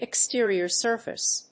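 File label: "exterior surface", English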